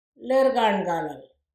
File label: "lirrgarngalel", female teacher